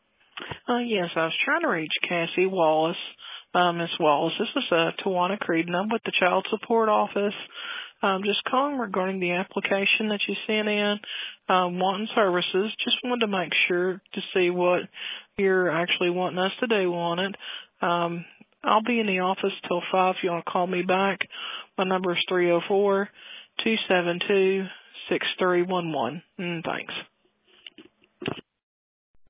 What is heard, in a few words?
Voicemail